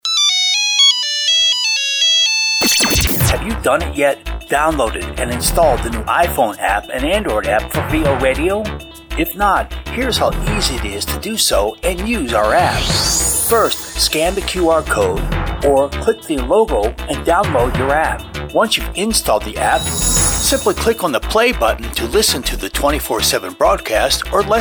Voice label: promo apps

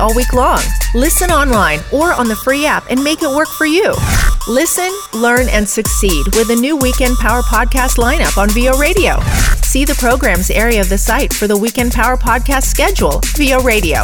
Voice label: promo podcasts; VO RADIO - THE ORIGINAL VOICE OVER RADIO BROADCAST

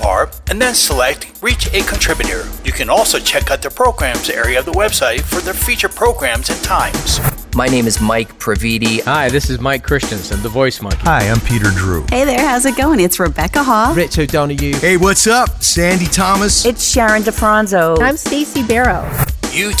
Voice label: promo contributors

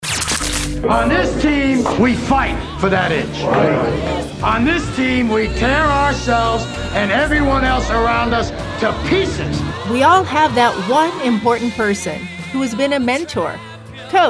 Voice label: promo champions

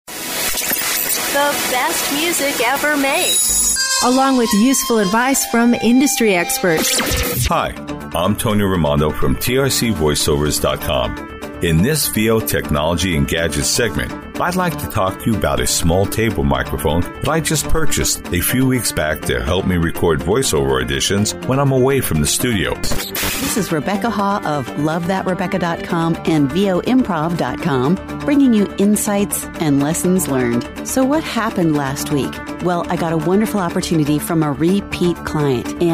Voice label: promo montage

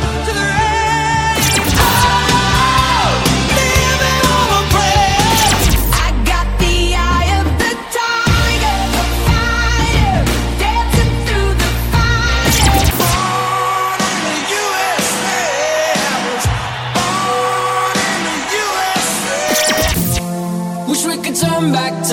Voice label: promo music promo

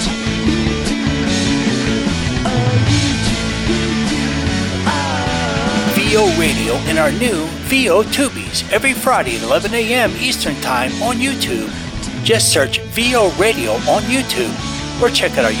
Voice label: promo live tubees fridays

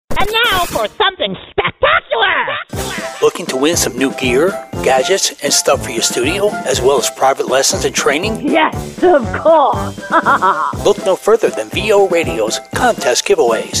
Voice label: promo contests sound hunt

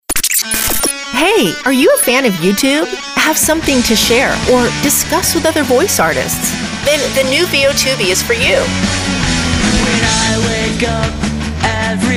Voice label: promo

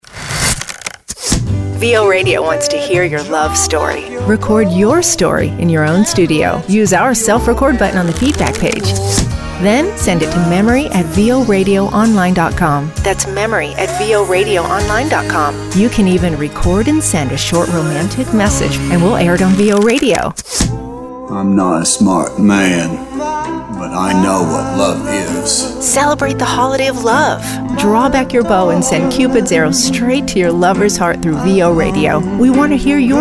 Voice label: promo

